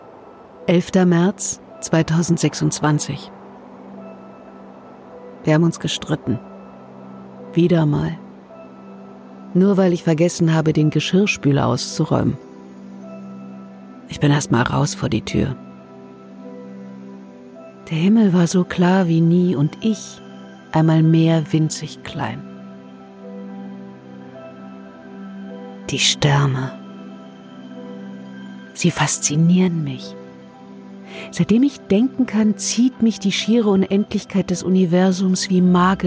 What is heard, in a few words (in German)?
Hoerbuch Tagebuch